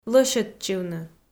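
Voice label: "лӧсьӧдчывны", Komi, female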